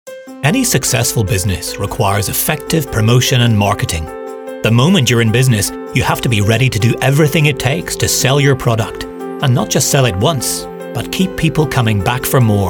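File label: corporate, Northern Irish actor and voiceover artist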